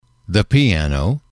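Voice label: "the piano", English, male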